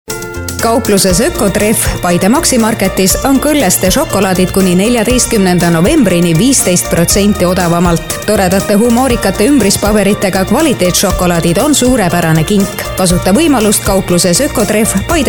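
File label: estonian female commercial; voice demos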